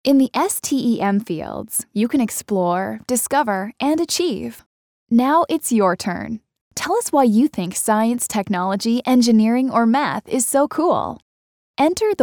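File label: American narration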